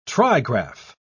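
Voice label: "trigraph", British English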